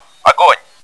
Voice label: returning fire, bot; cstrike